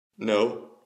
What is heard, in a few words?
man say no sound